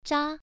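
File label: "zha1", Chinese pronunciation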